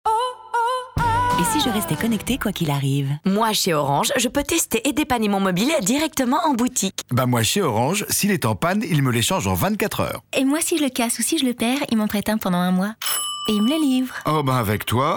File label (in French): voix interne comédie; Orange